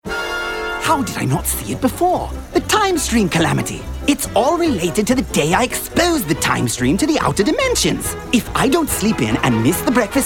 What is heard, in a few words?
VO Character Demo